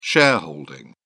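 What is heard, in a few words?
"shareholding", British English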